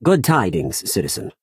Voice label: Imperial male hello

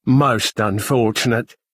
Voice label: Bosmer male sorry

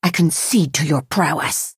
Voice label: Redguard female concede

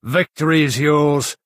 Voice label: male concede; Bosmer

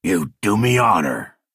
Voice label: male thank you

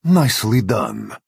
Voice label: Khajiit male nice play

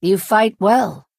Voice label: Imperial female nice play

